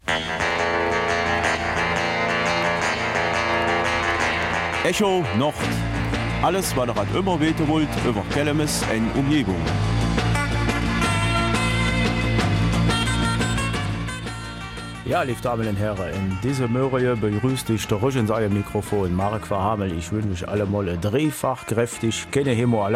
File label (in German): Kelmiser Mundart; Amaröllchen